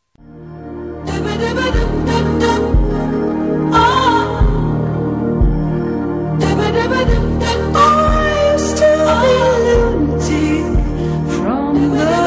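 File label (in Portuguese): ROMANTIC AMOR A DISTANCIA FEM, Telemensagens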